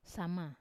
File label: "sama" rear end, Wamesa